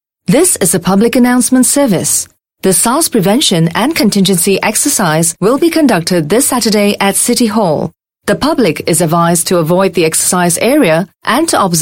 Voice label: Asian English Public Announcement

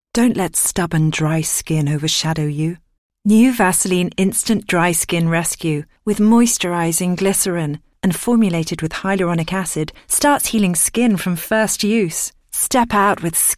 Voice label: UK English; Vaseline Validity II

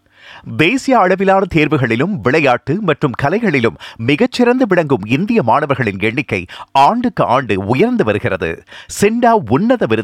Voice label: Tamil SG; SINDA Excellence Awards 2024

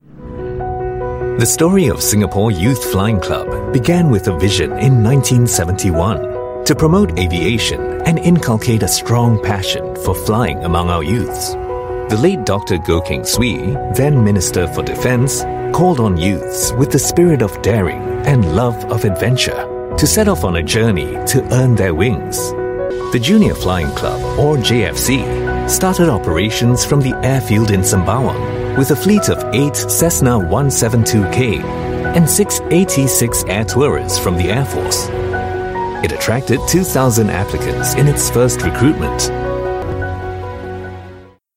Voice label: Asian English; SYFC Commemorative Video

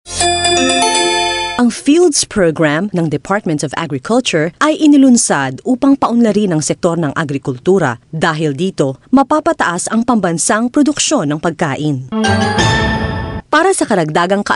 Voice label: Tagalog eLearning

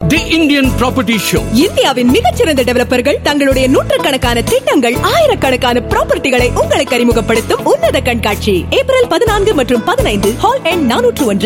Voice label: Tamil Female Singapore Tamil VO; India; IPS

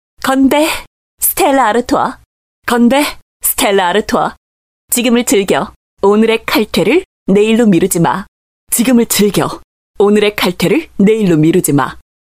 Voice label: Korean

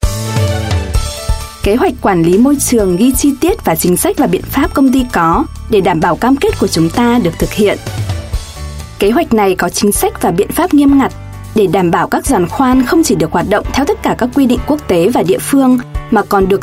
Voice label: Vietnamese, Female

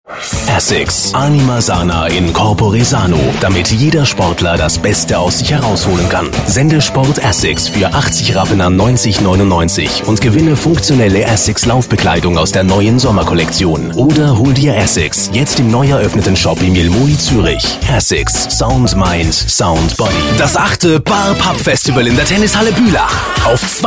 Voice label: Swiss German

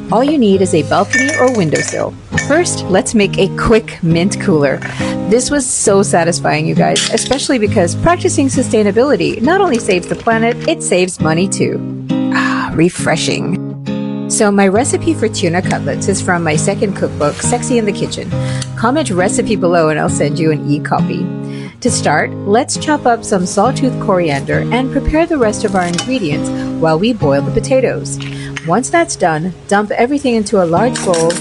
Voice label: Asian English Cooking

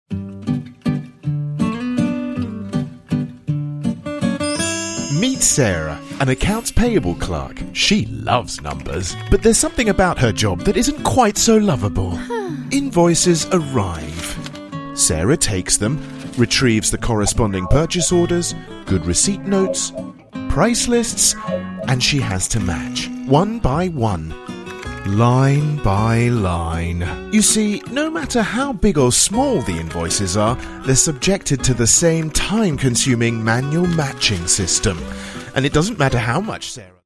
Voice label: UK English Explainer Video, Male

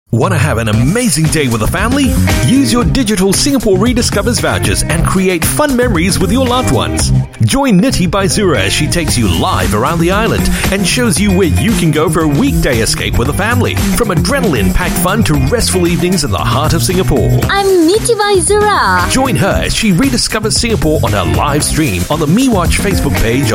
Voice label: Asian English ANNOUNCER; STB

